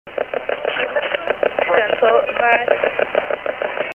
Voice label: female